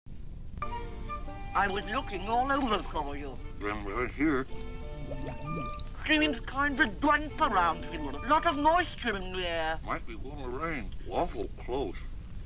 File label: Talking underwater